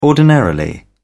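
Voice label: "ordinarily", English, British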